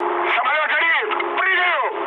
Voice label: on fire, mood med, speech ru; War Thunder